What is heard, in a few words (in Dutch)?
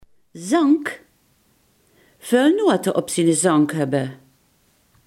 "zang", limburgse; zang, zank